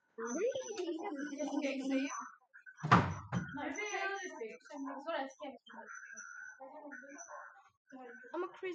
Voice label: danish classroom

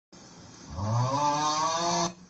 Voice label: oooaaaahhhh

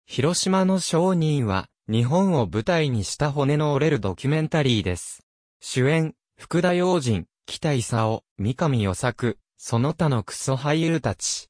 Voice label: japanese

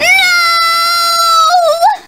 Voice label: princess yelled nooooooo; daisy

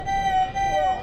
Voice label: man forward collision warning

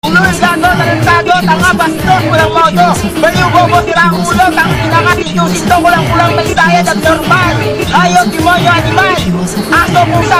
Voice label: filipino curses

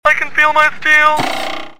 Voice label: feel my steel gay robot

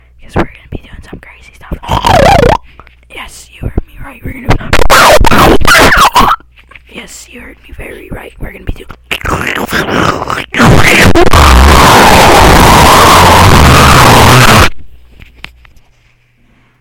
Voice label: asmr to put you to sleep

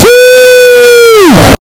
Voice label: suiiiiiiiiii

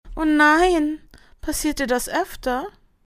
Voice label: german sad woman

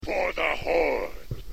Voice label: for the horde orc

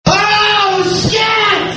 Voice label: ooohhh

